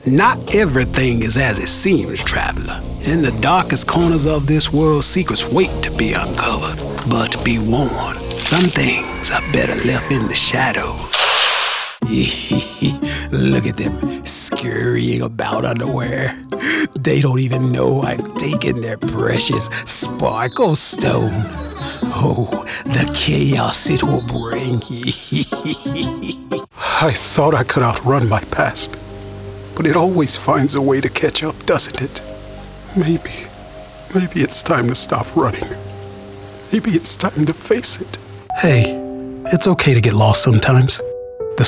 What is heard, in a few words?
Character